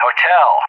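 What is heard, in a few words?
"Hotel", English; JTAC; Alphabet; DCS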